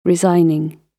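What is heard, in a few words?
"resigning", British English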